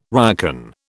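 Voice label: "ryokan", British English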